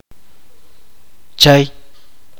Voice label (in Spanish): "pescado", chuj